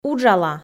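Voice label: "уджала", Komi, female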